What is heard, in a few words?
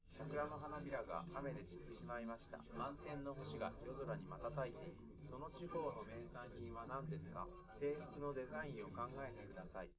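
male3 live recording 130ms 1m, underdetermined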